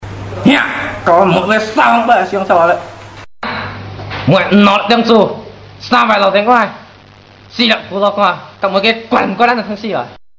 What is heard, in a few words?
chat